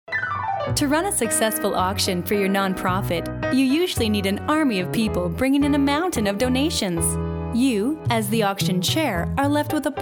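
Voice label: Narration Female, Natural, Approachable, Engaging, Corporate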